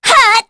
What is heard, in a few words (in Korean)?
Vox Casting, kr; Aselica; kingsraid